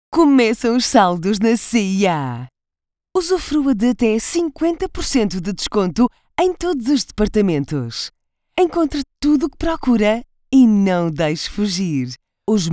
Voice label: Portuguese promos

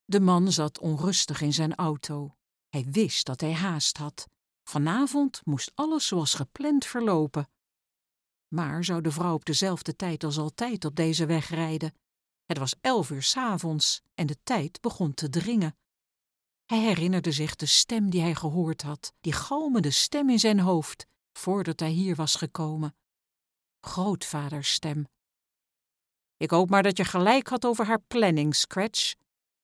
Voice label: dutch audiobooks

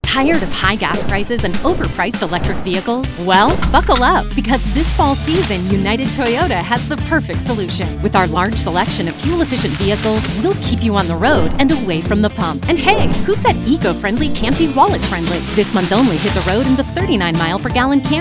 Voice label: english american automotive; Englisch (US); Vereinigte Staaten

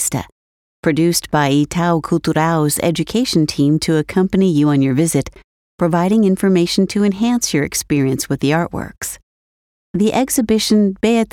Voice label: english american audio guides

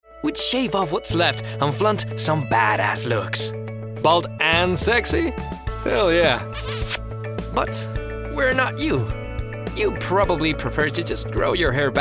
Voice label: english commercial

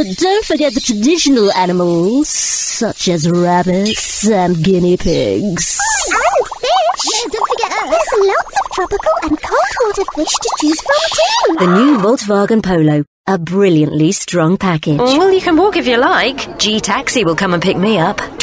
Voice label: english british

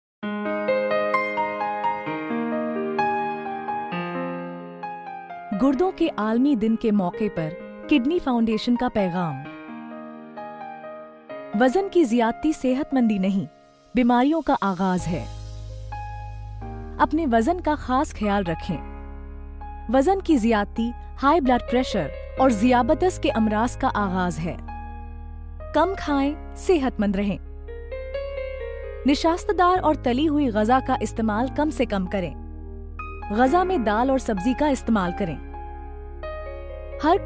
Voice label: urdu narration; professionelle indische Sprecherin

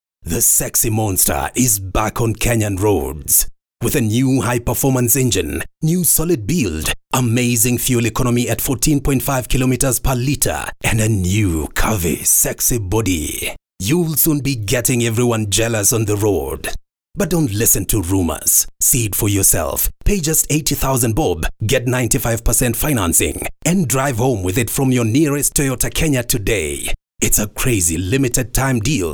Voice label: english african commercial; Suaheli Englisch (afrikanisch); Kenia; Swahili- und Englisch-Sprecher

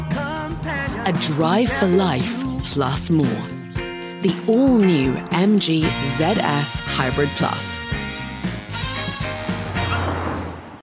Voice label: english british automotive

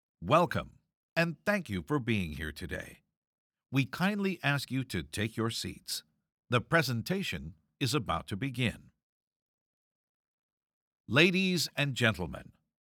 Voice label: english canadian announcements

